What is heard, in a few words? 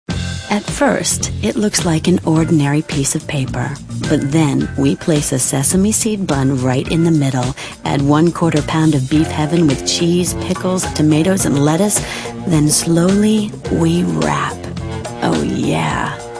female, contemp